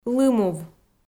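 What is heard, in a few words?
"лымув" Komi, female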